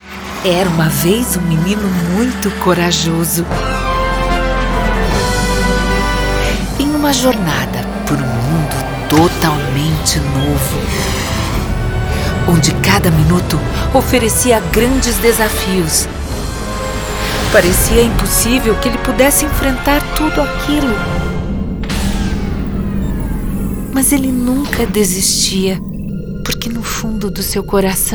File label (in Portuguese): Narracao History Telling